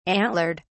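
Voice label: "antlered", British English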